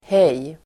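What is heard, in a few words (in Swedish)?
"hej", Swedish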